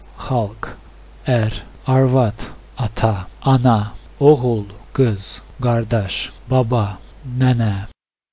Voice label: azerbaijani; people